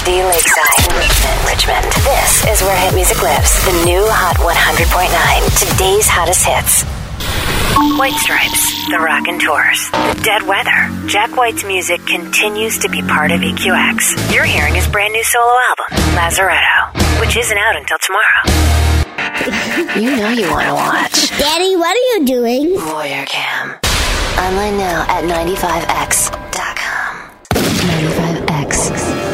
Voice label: radio; imaging